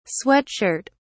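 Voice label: "sweat shirt", British English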